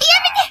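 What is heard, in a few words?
"yamete", Japanese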